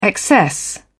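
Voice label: "excess", British English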